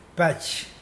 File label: "nest", Mokilese